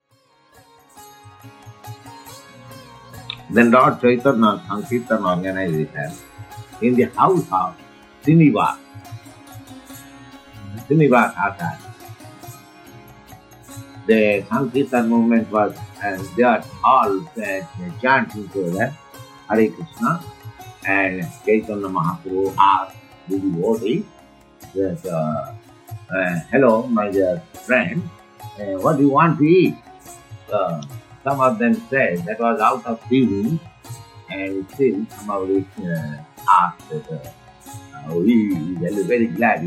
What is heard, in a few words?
Conversation; on Lord Caitanya Play; San Francisco; srilaprabhupada